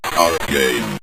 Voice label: start Game, Synth Voice; Kenney's Sound Pack